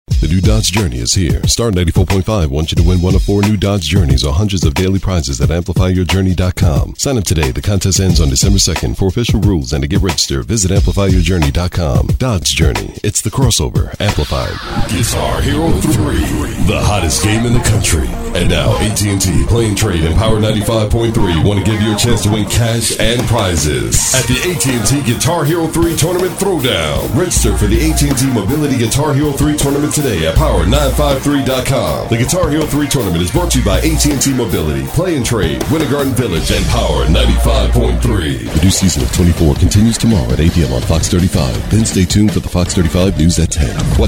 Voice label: Radio & TV Promos